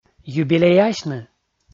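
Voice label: "юбилеясьны", Komi, male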